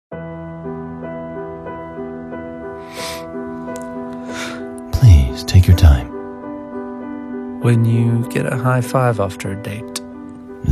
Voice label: Radio Ad; KFC Double Down